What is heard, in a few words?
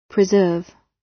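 "preserve", British English; Time, history; Thời gian, lịch sử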